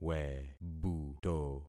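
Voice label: "webuto", concatenated syllables; Affixing experiment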